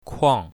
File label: "kuang4", Mandarin